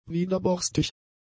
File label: "widerborstig", German; adjectives